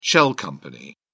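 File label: "shell out", British English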